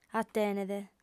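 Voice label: "atenet", female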